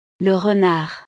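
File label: "le renard", French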